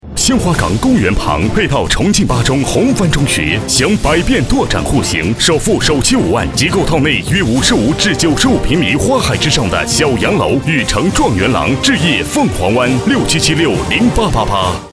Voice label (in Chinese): 地产 男 大气激情; 15S; 凤凰湾地产